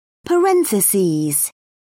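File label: "parentheses", British English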